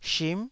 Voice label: "shim9", taiwanese Syllables